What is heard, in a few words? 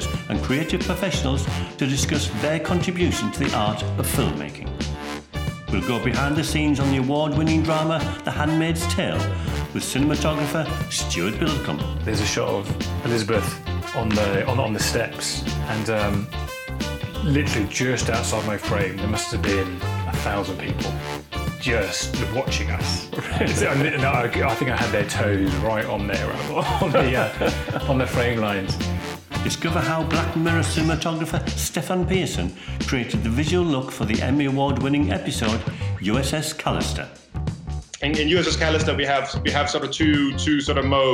Podcast Trailer; The Visual Impact Podcast